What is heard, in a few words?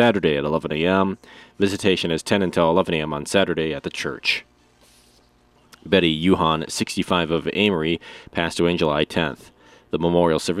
Funeral Announcements